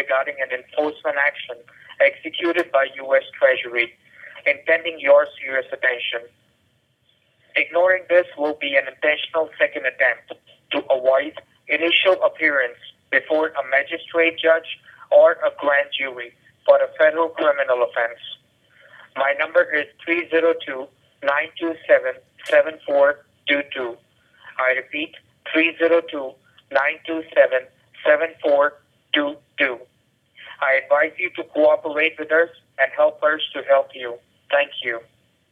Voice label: Telephone Message Scam; United States Treasury